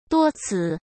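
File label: "多次", Mandarin Chinese; chinese flashcards; hsk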